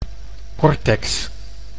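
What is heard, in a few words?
"cortex", Dutch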